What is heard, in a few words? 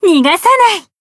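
finish, Japanese; channon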